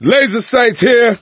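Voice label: laser sights, voice, male; Left 4 Dead 2; coach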